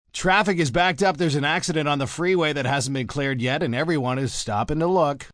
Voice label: Traffic Backup M, Broadcast, traffic report, male, accident on freeway, traffic backed up; news